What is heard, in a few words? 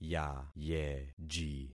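"yayeji", concatenated syllables; Affixing experiment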